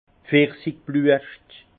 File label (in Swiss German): "pfirschibluescht", Alsace; vin; fleurs de pêcher